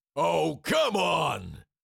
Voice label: Cartoon lion voice "oh come on"